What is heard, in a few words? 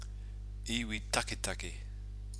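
"iwi taketake", Maori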